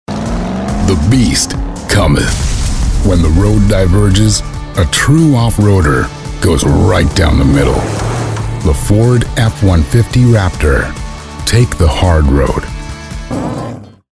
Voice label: Commercial Spot; FORD F-150 RAPTOR; Vocal Samples; Commercial Spots